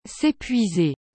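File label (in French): "sepuiser", French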